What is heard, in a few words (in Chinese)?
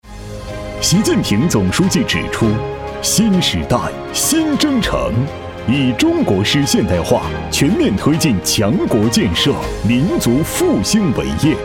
男 专题汇报 激昂 坚定; 工业强市; 方向不动摇